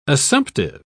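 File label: "assumptive", British English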